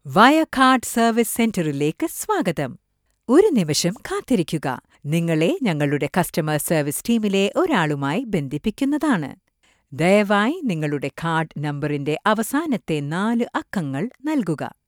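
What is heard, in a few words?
IVR Malayalam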